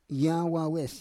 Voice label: "yawawes", Roon

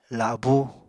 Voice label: "labú", Apatani

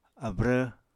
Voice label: "abrv", Apatani

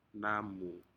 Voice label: "namu", Aneityum; shellfish

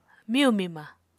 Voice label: "miyu-mima", Apatani

